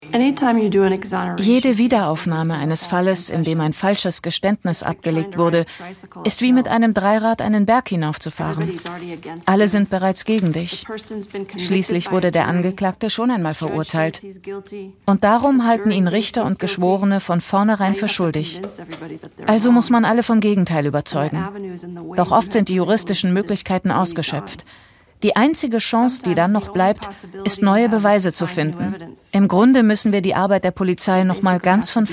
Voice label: Voice Over; ARD